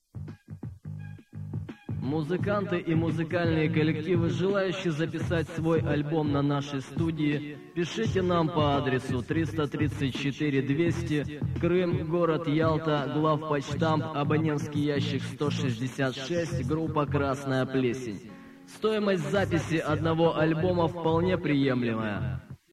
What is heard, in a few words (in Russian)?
Реклама; Новый год